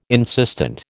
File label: "insistent", American